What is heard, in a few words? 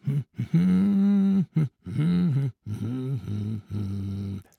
trader male like greeting